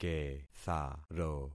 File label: "ketharo", concat syllables; Affixing experiment